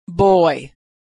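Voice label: "boy", American English; vowel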